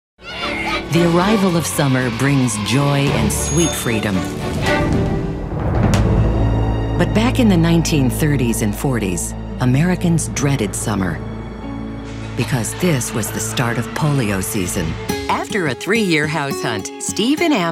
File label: Narration